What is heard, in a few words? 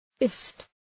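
"fist", English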